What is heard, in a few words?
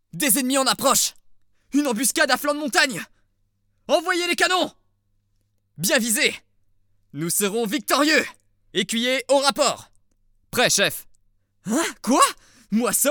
various character